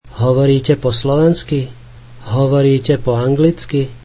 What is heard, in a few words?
slovak Do you speak Slovak